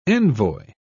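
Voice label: "envoi", English, British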